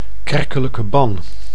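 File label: "kerkelijke ban", Dutch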